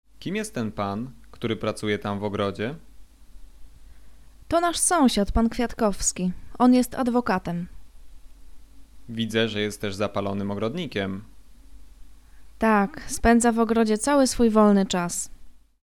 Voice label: conversation; lektorek; firstyear